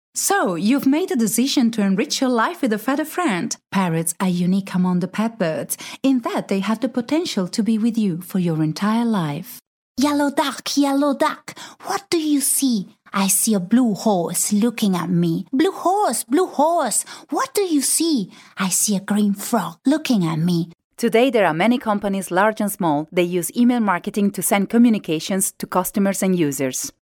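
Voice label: Italian, English, female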